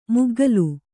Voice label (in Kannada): "ಮುಗ್ಗಲು", Kannada